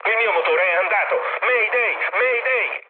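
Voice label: Italian damaged engine mood med, speech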